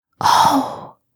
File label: asmr girl ooh; asmr ringtones